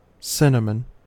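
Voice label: "cinnamon", English, US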